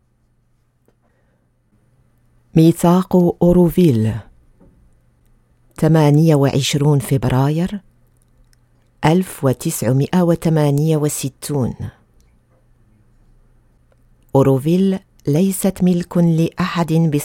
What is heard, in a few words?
performance charter in arabic; auroville